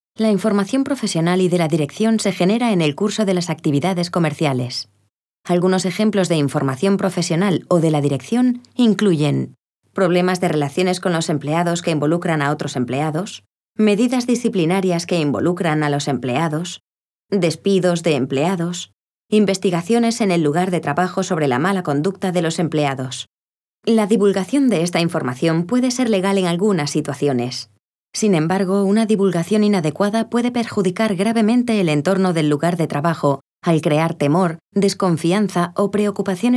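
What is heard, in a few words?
Spanish elearning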